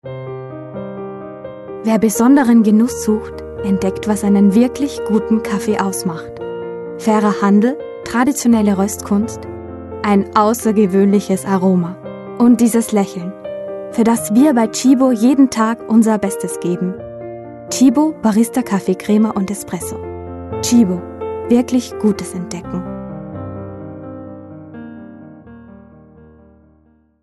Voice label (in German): Werbung; Tchibo